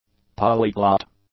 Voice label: "polyglot", english; adjectives

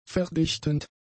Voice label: "verdichtend", German; adjectives

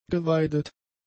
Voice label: "geweidet", German; adjectives

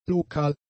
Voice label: "lokal", German; adjectives

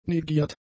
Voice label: "negiert", German; adjectives

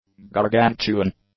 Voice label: "gargantuan", English; adjectives

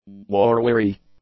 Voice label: "war-weary", English; adjectives

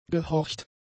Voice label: "gehorcht", German; adjectives

